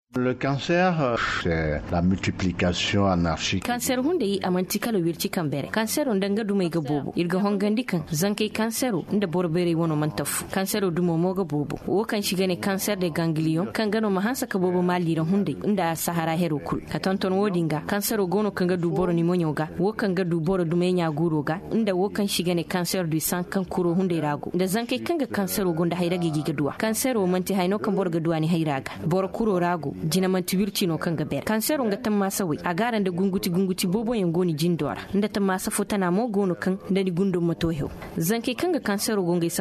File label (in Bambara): magazine bamanankan